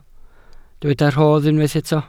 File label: "rhodd", welsh